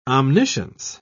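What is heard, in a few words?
"omniscience", English, British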